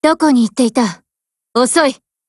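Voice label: HELLO, Japanese; GF Alarm; PK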